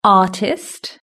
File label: "artist", british english